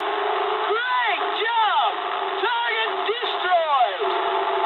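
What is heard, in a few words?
English bomb success mood high; War Thunder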